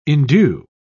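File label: "indue", British English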